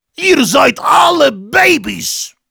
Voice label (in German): Heavy taunts, de